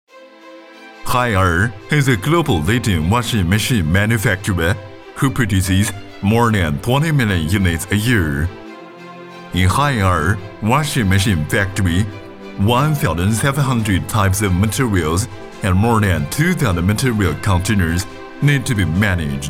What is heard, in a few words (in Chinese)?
男 英文 宣传片 低沉起伏